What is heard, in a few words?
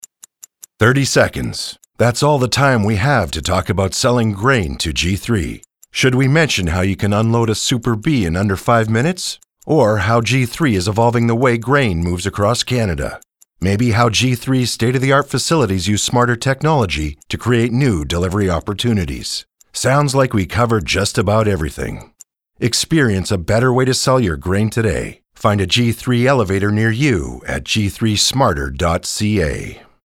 Radio; Impressive Numbers Campaign